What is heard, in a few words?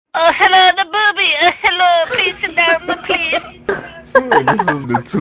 asian voice